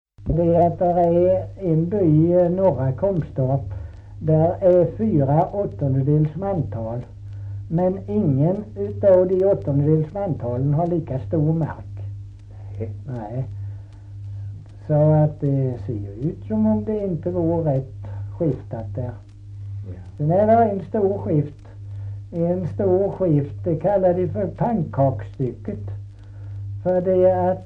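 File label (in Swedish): Kristianopel, Swedish dialect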